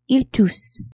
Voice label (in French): "ils toussent", French; tousser